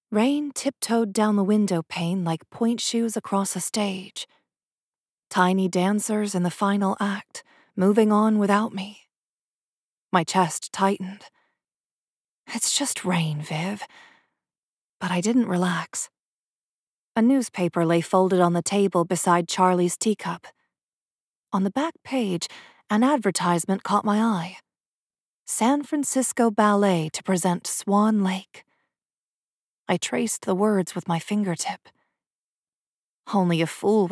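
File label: Historical Romance 1st Person M/F dialogue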